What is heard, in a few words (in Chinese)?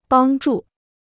"帮助", Chinese